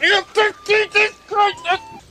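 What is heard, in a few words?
yoopik yeezuz kryzez, gibberish; Voice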